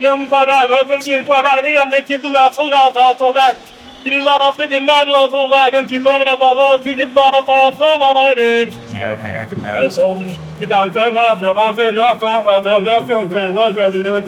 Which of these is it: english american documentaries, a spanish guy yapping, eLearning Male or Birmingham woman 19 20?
a spanish guy yapping